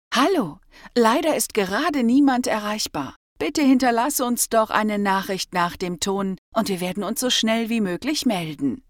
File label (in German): wir ansage, anrufbeantworter